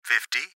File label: Gps Voice, Male